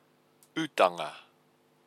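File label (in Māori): "utanga", Māori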